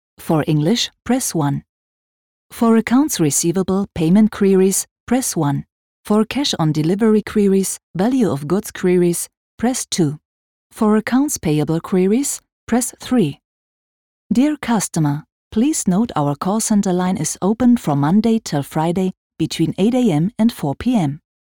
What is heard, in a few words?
english onhold